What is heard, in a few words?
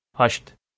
"hasht", Balochi